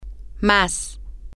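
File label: more, Spanish, female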